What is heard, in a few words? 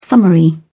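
"summary", British English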